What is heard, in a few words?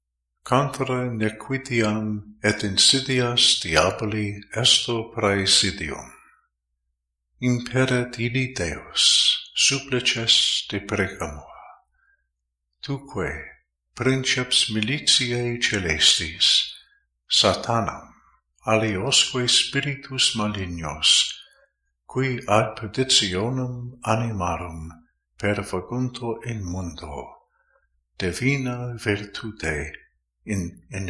Sancte Michael St Michael LATIN